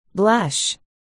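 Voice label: "blush", spoken word, articulation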